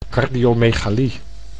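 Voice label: "cardiomegalie", Dutch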